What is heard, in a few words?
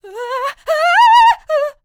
Enemy Generic Human Spawn Disbelief; rampage arcade gamerip 2018